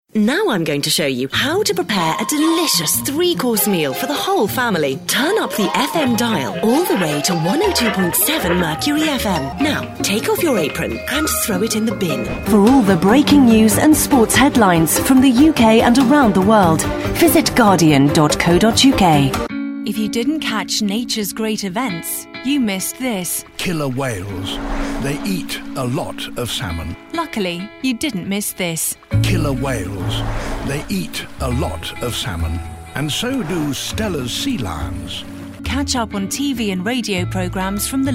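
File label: received pronunciation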